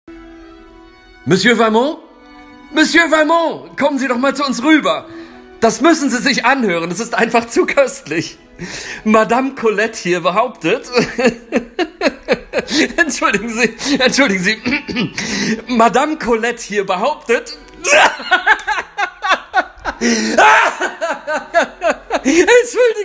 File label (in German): lustig